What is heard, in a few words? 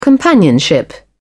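"companionship", British English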